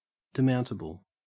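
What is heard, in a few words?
"demountable", Australian English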